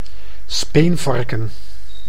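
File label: "speenvarken", Dutch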